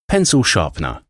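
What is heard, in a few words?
"pencil sharpener", English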